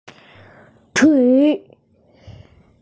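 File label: "tui" Chinese, female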